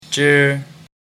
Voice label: "zhi", mandarin

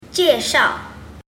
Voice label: "jieshao", mandarin

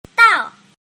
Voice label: "dao", mandarin

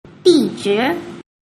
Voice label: "dishi", mandarin

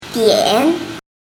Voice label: "dian", Mandarin

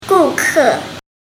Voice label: "gu ke", Mandarin

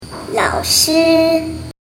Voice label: "lao shi", Mandarin

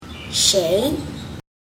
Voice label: "shei", mandarin

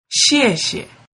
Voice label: "xiexie", mandarin

